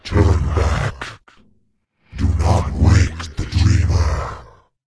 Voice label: Warning Yell; Dreamscythe